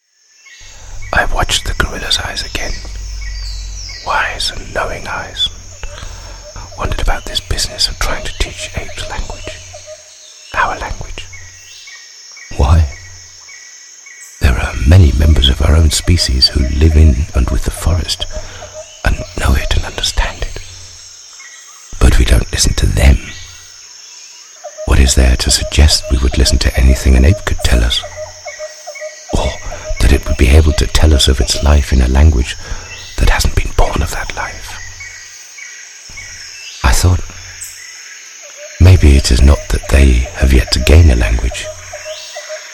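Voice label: British English, Schauspiel Sanft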